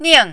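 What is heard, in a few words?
"ning", Mandarin, fourth tone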